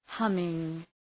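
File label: "humming", English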